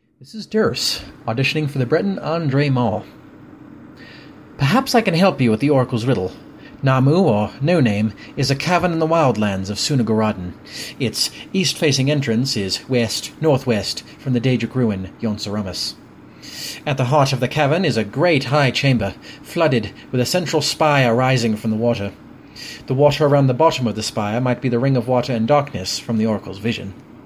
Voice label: voice, breton voice auditions